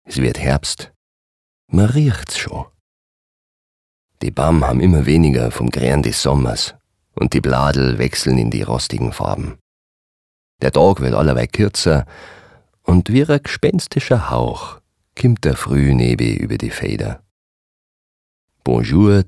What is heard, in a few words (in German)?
Dialekt Bayerisch